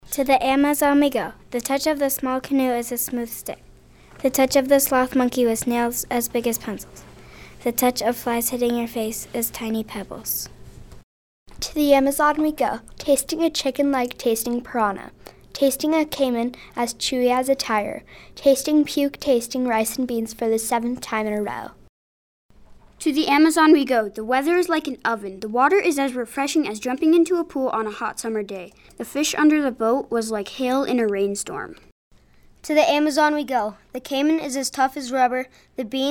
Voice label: voices group